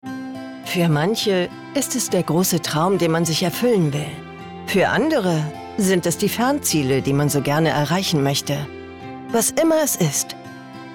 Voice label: Werbung Finanzen

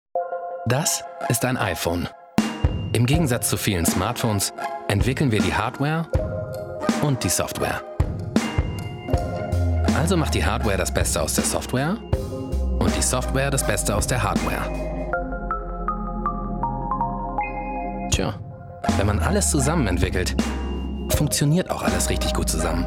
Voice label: werbung hardware software; iphone 6

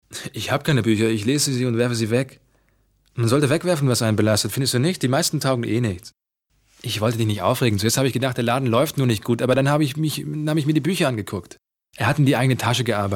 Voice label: Synchron; voxhaus

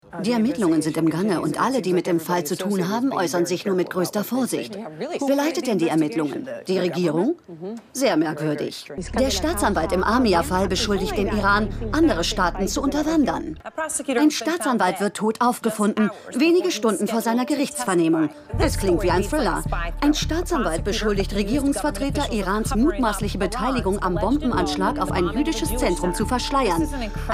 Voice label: Nachrichtensprecherin

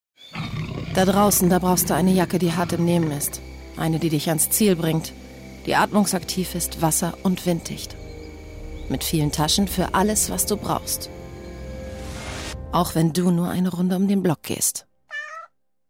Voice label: Werbung taff

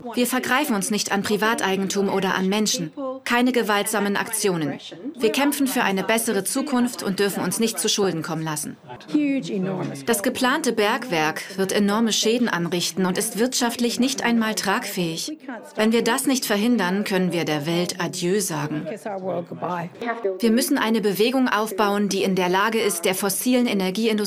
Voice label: Reportage Australien Voice over; ARTE; Alles fuer die Kohle

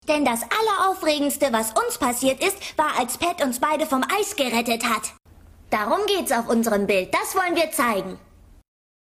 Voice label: trickstimme

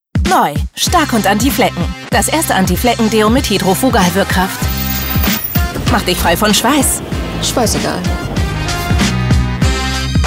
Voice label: Mix Werbung; LOFT VOICES

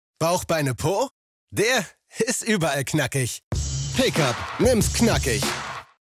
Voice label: Spot

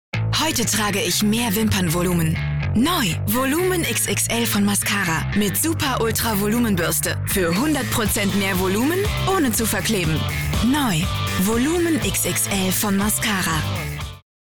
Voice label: commercial, Werbung

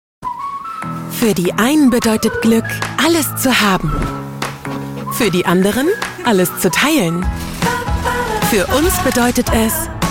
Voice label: Werbung; Fressnapf

